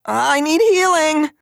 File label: VO en